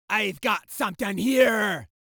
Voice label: Voices Jamaican

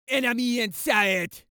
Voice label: Voices Jamaican Enemy In Sight

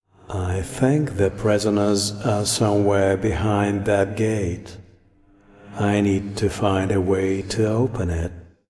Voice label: Voice Monolog; we are the dwarves gamerip 2016

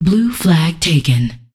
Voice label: Voices Announcer Status; unreal development kit

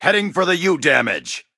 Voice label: Voices Character Male; unreal development kit

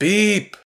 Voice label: Voices urgent; The Looker

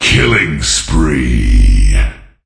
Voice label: Voices Announcer Reward

